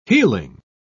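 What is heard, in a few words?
"healing", British English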